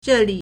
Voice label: "zhe4li3", Chinese